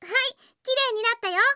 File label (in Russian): zvuki s golosom iaponskoi anime devushki